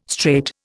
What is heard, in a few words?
"strait", Indian